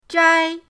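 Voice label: "zhai", Mandarin pinyin, first tone; pinyindct-open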